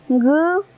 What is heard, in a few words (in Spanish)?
"uno", Mazateco; números